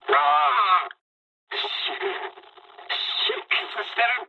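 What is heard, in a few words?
damaged pilot mood med, speech japanese; War Thunder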